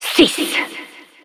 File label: Announcer ends 6sec, fr